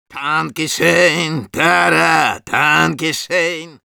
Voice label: positive vocalization, ru; Medic

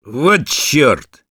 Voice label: negative vocalization, ru

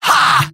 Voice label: Medic positive vocalization

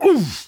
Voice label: painsharp

